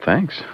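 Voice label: "thanks", male voice; expletives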